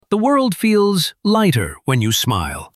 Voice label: Friendly and comforting voice ready to narrate your stories; Bill; Shot Studio